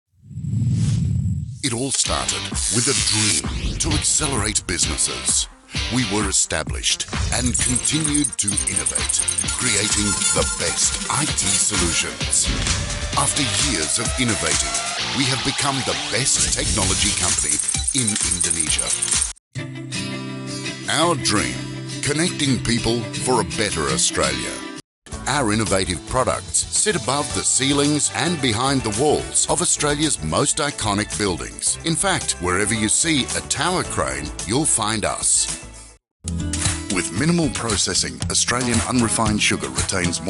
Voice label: corporate